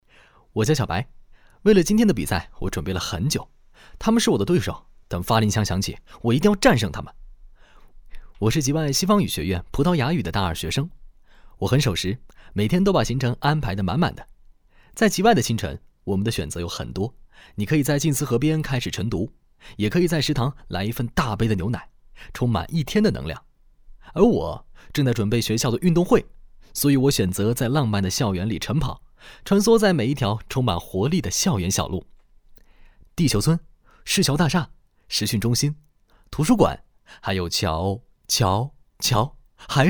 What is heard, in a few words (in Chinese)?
年轻自述